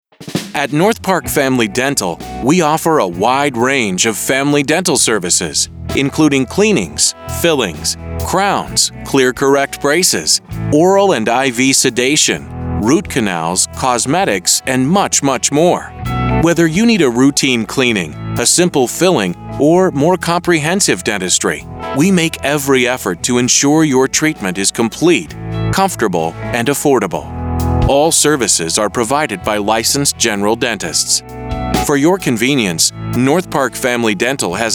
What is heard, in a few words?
American Male Voice, On Hold Messaging, Accent: American English, neutral or Midwest, Tone / Style: Friendly, Warm; Tone / Style: Friendly, Warm, pr